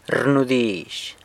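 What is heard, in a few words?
"rnudizh", questions, San Lucas Quiaviní Zapotec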